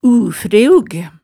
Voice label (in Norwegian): "u-freug", hemsedalsmaalet tale